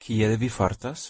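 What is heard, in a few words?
"kiel vi fartas" Esperanto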